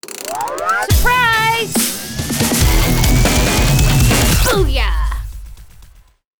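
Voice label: Sfx & music Introduction with voice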